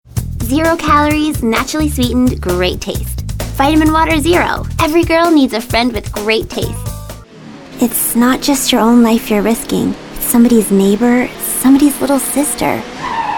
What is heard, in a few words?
Commercial Voiceover